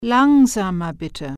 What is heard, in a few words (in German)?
"slower please", German